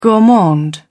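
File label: "gourmand", English, British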